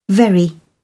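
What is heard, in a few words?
"very", British English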